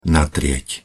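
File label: "natrieť", Slovak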